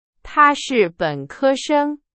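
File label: Chinese; hsk4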